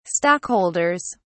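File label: "stockholders", English, British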